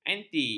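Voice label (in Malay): "anti", Malay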